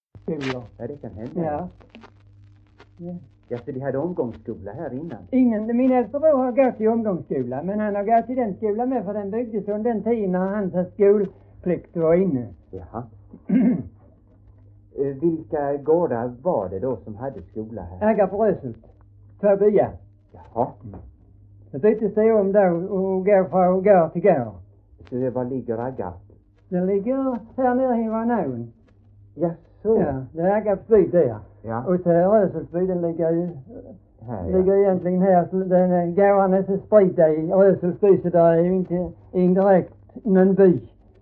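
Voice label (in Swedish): Hörja Skåne, dialekter; Folkminnen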